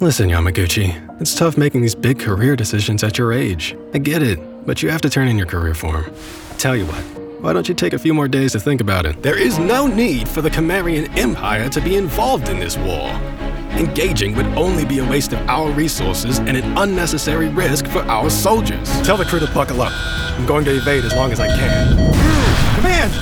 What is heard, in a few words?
Character